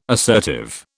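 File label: "assertive", British English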